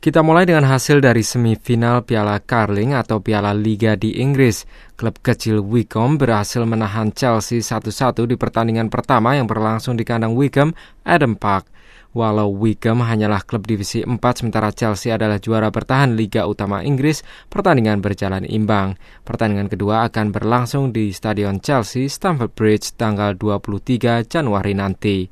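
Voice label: Indonesian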